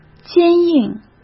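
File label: "坚硬", Mandarin Chinese; chinese flashcards; hsk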